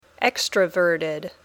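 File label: "extroverted", English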